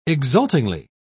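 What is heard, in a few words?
"exultingly", British English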